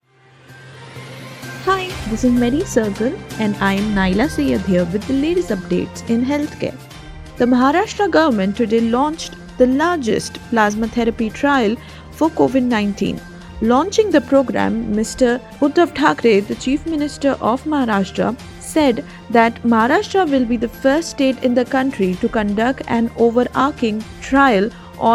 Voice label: english news